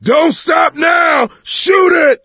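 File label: battlecry tank, male; Left 4 Dead 2; coach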